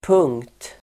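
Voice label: "punkt", Swedish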